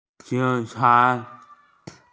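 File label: "police", Mandarin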